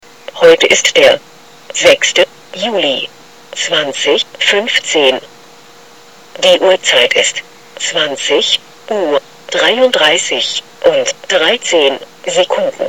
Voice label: speak clock